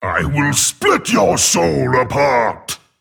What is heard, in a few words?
Male Demon Play